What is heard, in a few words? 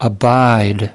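"abide", American English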